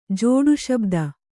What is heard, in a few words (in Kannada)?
"ಜೋಡುಶಬ್ದ", Kannada